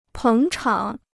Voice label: "捧场", Chinese

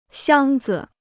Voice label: "箱子", Chinese

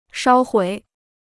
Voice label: "烧毁", Chinese

